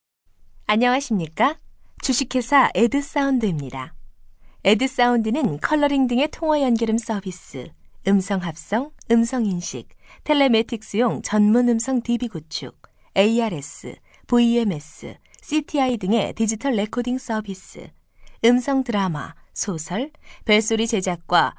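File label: Korean, female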